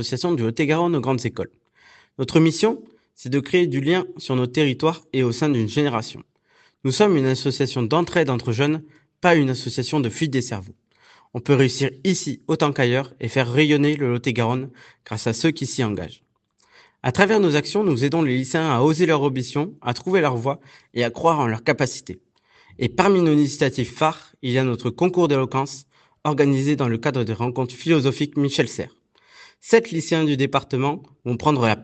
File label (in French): RÉPONDEUR RADIO; concours d'eloquence; BULLE